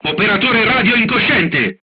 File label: machine gunner contused, crew tanks italian; War Thunder